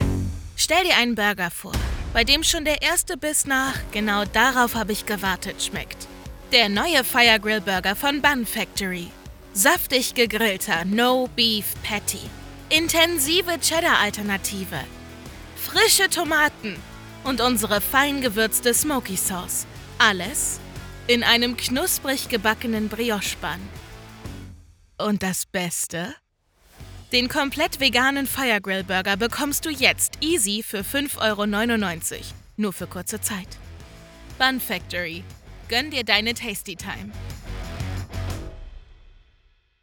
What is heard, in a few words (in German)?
Burgerwerbung